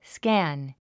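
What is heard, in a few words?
"scan", 英単語 発音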